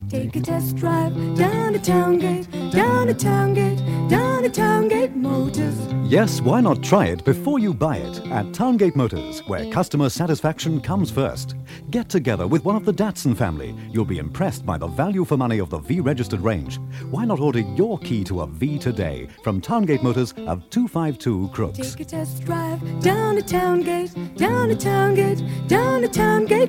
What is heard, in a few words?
Radio Advert; Radio Hallam; Towngate Motors - Try Before You Buy